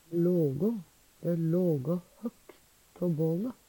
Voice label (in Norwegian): "lågå", Numedalsmål; Verb; Veggli